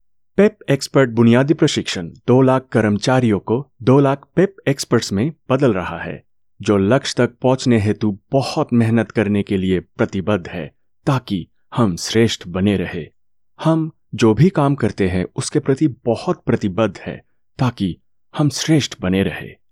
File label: Hindi eLearning